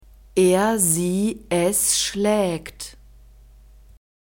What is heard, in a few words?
"er sie es schlaegt", German; verbs present tense irregular